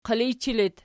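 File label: "qilijchi" locative, Archi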